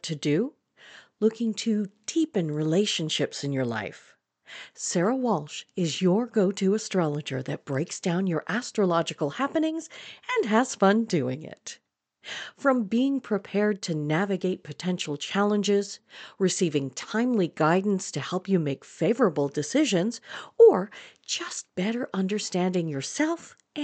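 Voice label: Website voice-over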